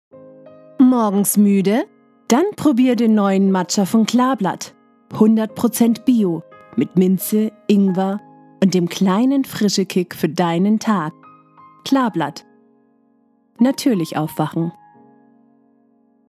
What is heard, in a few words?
Commercial; Klarblatt